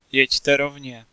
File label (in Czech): Straight, Czech, male